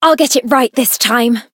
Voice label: chant; sincere